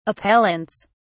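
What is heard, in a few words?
"appellants", British English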